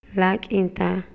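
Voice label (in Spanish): Maya, Yucatán, male; MOVIMIENTO; INALI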